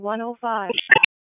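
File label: Police Spec Ops Tac, radio; Raleigh; Tac 4; p25; wake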